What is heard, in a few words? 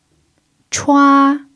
"chua1", pinyin